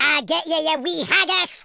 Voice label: "you'll regret that", Scotsman; speech